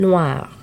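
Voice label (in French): "noire" French adjective, feminine singular; Colors and Adjectives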